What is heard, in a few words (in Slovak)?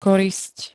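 "korisť", Slovak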